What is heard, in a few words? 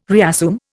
"reassume", Indian